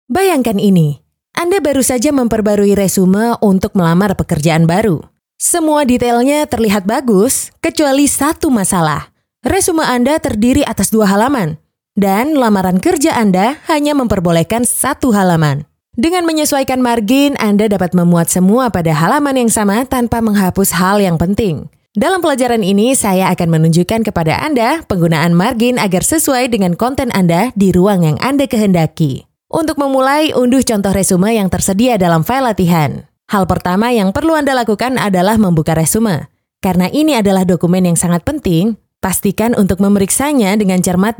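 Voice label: Bahasa Indonesia E-learning Sample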